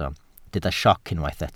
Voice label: "sioc", Welsh